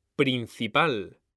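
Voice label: "principal", Spanish, male; mekanta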